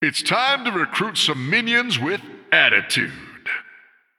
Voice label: Male Human Idle

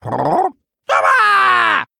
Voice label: Male Murloc; Errgl; Faelin Mission9b Start

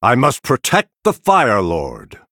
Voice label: Male Night Elf Start

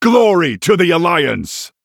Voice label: Male Human Emote Attack

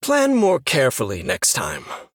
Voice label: Male Human Oops

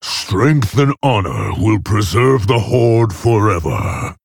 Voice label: Male Orc

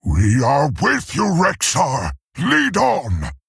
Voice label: Male Tauren

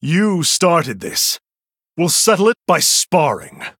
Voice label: Male Human

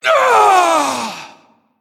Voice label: Female Titan Keeper Death

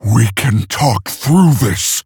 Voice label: Male Orc, Emote Response; Thrall; Jaina Mission7

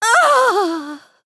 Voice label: Female Human Death

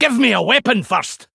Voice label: Male Dwarf ERROR NEED WEAPON

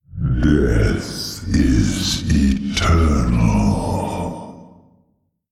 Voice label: male old god, Attack

